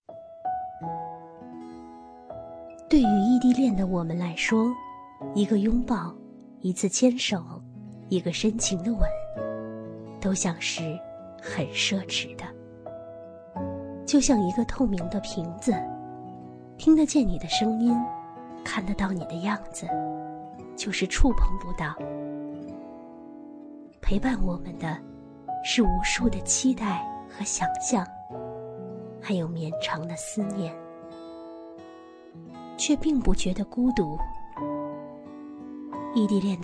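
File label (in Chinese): 女宣传片; 戒指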